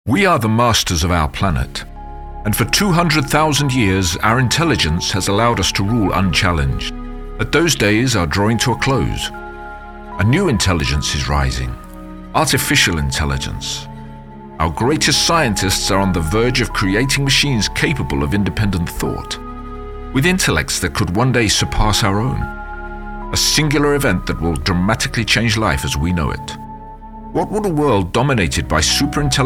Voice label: Narration